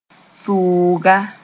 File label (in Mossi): "sʋʋga", Moore; knife